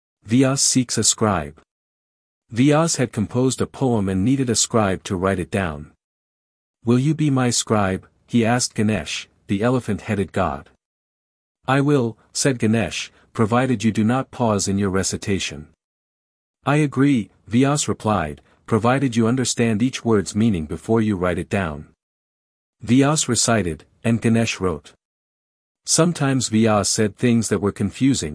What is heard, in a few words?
male voice